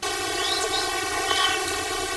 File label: male voice